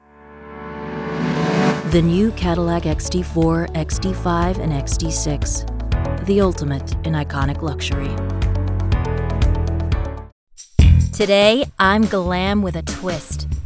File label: Commercial